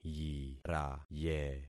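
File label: "yiraye", concatenated syllables; Affixing experiment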